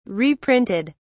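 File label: "reprinted", British English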